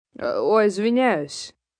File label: I'm Sorry, female, Russian; foreign; Etiquette; Etiquette Sound Effects